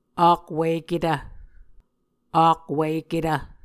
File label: "akwiketv", muscogee; Mvskoke Words with Voicing Consonants; A Dictionary of Creek/Muskogee; SIL International; Onvkv Ohhvyayices